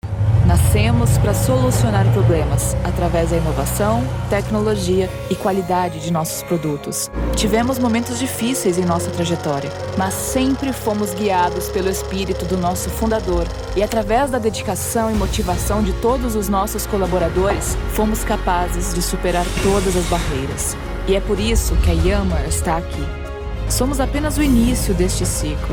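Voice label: corporate documentary confident cinematic female brazilian portuguese; yanmar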